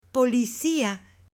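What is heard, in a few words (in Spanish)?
"policia", Spanish, male; AppLenguaje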